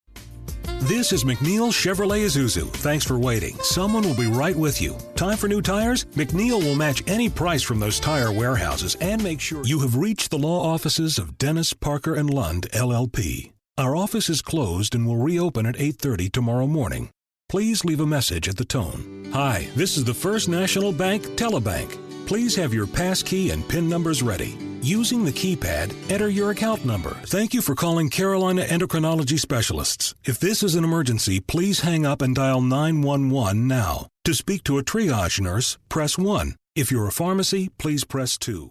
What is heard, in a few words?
On Hold